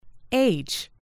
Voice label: "age", US English